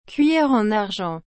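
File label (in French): "cuiller en argent", French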